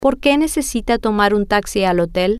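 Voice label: Porque Necesita Tomar Taxi Hotel, Spanish, female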